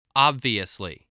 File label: "obviously", voice, spelling